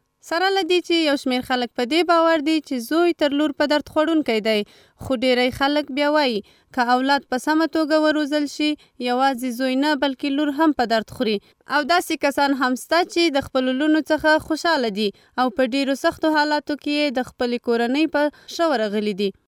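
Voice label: pashto female voice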